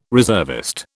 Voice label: "reservist", British

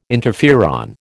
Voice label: "interferon", American

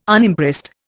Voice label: "Unimpressed", Indian

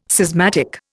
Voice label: "schismatic", Indian

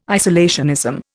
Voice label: "isolationism", Indian

